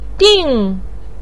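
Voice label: "ding4", Mandarin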